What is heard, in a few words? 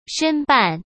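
"申办", Mandarin Chinese; chinese flashcards; hsk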